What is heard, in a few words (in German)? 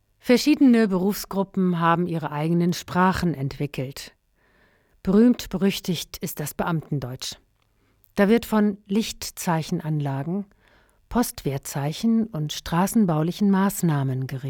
buerokratendeutsch, sprecher